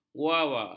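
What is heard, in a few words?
"guava", Malay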